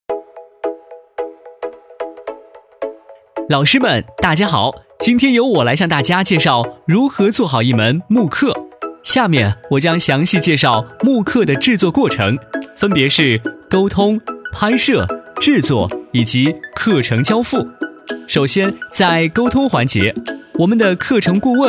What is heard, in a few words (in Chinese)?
男,节目说明 制课流程